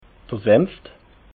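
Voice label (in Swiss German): "senft", Alsatian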